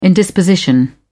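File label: "indisposition", British English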